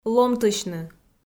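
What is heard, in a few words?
"ломтысьны" Komi, female; kpv audio dict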